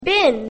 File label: "bin", english; grade1; basic sounds 1